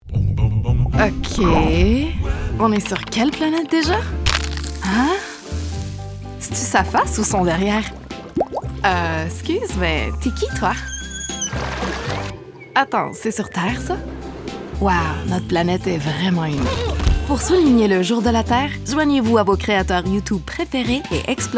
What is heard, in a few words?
Commercial French Canadian